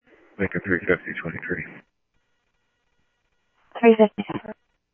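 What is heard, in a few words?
Sheriff West County, scanner audio trunk; MCSO - WEST; ThompsonPeakScot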